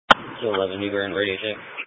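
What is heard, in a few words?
Police Patrol Dispatch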